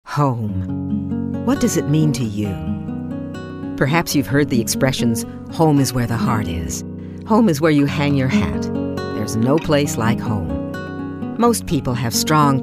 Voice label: Narration